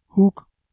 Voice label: "huuk" Balochi

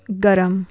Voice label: "garam" warm, Urdu

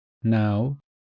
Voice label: "naw", Welsh

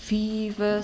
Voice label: East Frisian, five

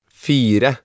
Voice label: "fire", Norwegian

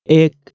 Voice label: "ek", Nepali

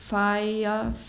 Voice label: "faie", East Frisian

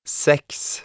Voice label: "seks", Norwegian

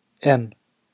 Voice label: "einn", Icelandic

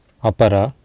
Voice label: "apara", Sanskrit

